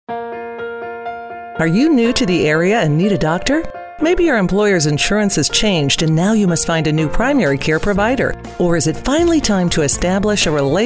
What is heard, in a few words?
commercial